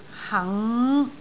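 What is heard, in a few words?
"hang5", Cantonese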